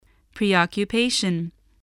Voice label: "preoccupation", voice, spelling